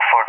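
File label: "for", English, SPAIN; DCS; Allied Flight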